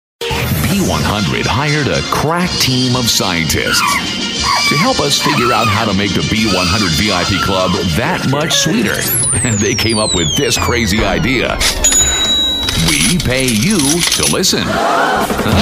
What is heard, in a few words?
Radio Jingles Liners